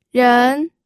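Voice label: "ren", Mandarin Chinese, second tone; pinyin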